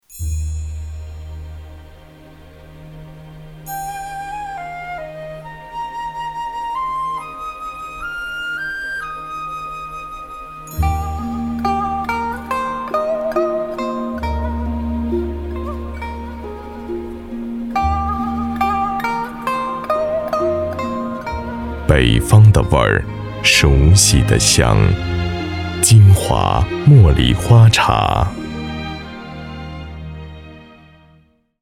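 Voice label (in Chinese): 广告茶类茉莉花茶; 京华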